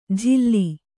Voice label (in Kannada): "ಜಿಲ್ಲಿ", Kannada